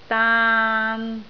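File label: "daan3", Cantonese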